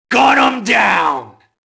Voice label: mobster alert group; enemy; Max Payne